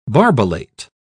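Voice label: "barbellate", British English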